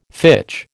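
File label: "fitch", American English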